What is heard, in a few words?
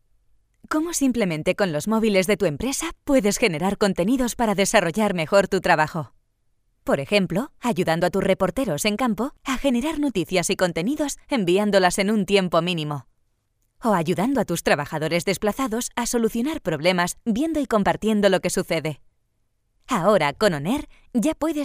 spanish f narration, spanish voice demos